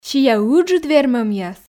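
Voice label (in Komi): сиа ыджыд вермӧмъяс, Komi, female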